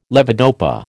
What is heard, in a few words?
"levodopa", American